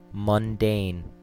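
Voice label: "mundane", US English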